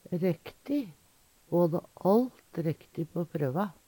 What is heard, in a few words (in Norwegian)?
rekti, Numedalsmål; riktig; Ho hadde alt rekti på prøva.; Adverb